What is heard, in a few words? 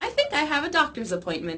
female happy